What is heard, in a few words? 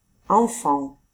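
"enfants", French; famille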